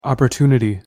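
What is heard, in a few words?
pronunciation "opportunity", English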